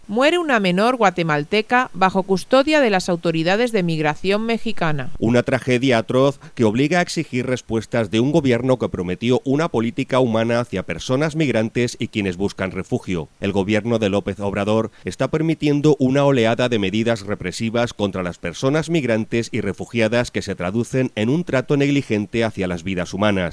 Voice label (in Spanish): informativo; mexico muerte menor bajo custodia autoridades migratorias